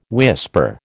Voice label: "whisper", American